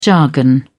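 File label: "jargon", British English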